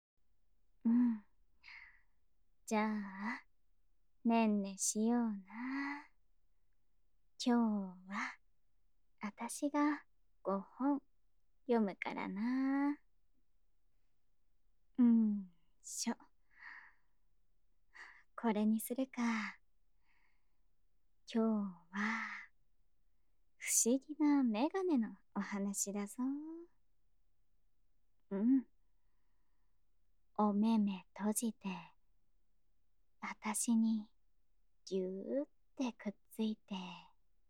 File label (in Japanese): 声のみ 左 添い寝とご本, ASMR; 特典音声